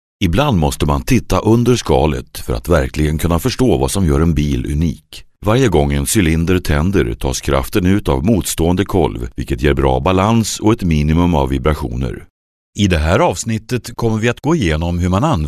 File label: Industrial narration